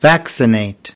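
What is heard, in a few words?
"vaccinate", American English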